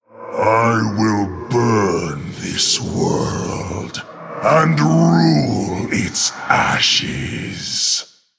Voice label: Male Orc Play